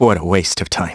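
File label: Vox Skill, voices heroes English; Riheet; kings raid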